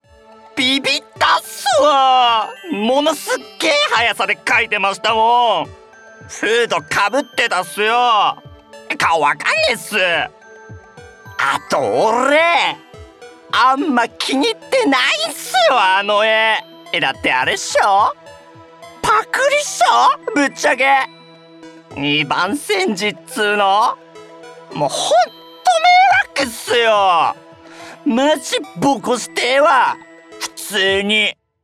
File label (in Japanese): チンピラ学生風